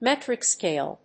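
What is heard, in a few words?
"metric scale", English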